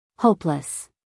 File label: "hopeless", us female